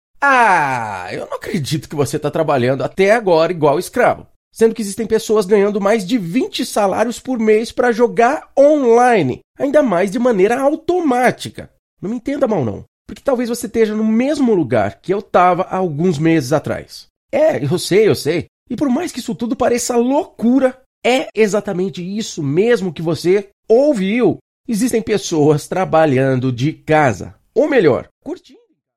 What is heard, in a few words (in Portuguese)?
voz teatral; ouro